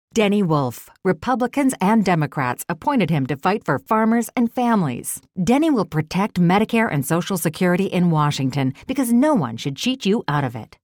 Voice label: Political ad

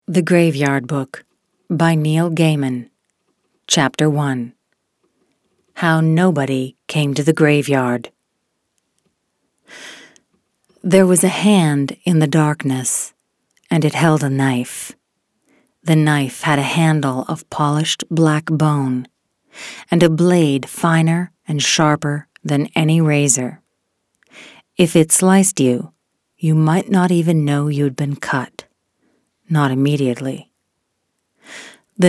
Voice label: Suspense thriller

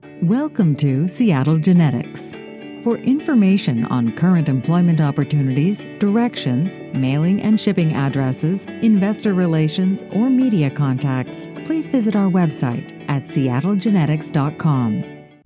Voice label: Voice Over Artist Professional Welcoming

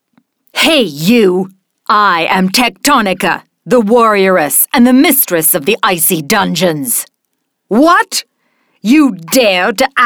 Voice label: Warrioress Tough evil powerful

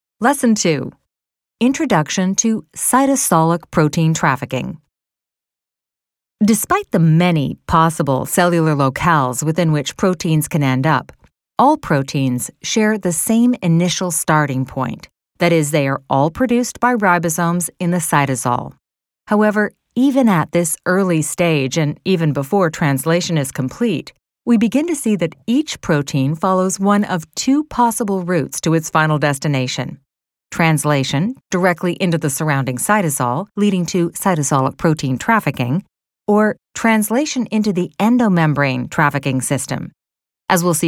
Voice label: Textbook Science College

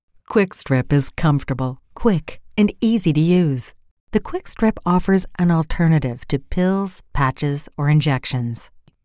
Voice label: Medication Smooth Calm

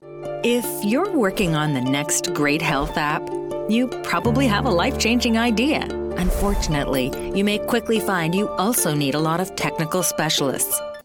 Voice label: Voice Over Artist Engaging genuine Tech App

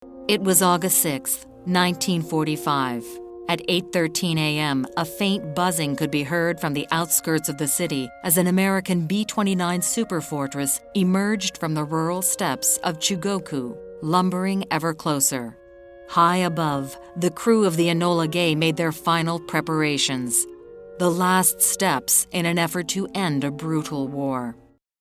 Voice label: serious ominous; Enola Gay

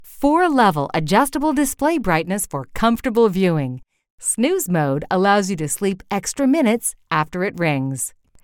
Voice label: Upbeat Friendly

